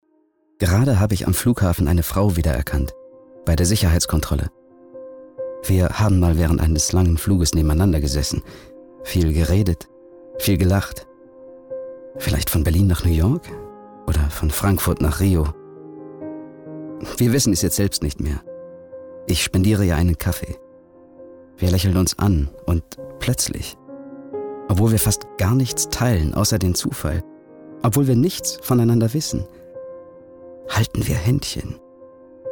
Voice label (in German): erzaehlerabschied